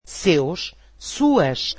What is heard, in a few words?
portuguese their